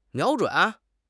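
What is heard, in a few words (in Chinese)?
"瞄准", Chinese; HSK6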